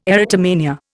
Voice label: "erotomania", Indian